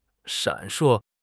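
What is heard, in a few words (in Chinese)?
"闪烁", Chinese; HSK6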